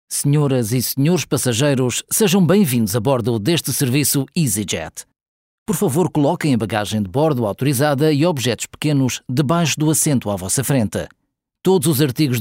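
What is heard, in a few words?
boarding Portuguese; EASYJET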